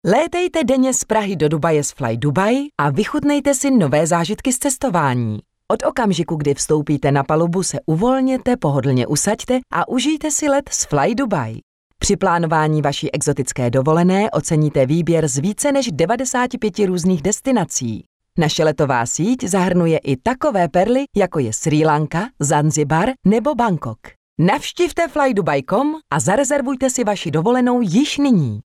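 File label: female Czech commercial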